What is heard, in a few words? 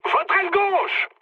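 left wing, mood high, French; War Thunder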